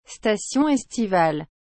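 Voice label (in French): "station estivale", French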